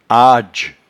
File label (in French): "adge", patois jura